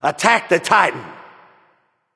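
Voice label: vox attack male; smite; baronsamedi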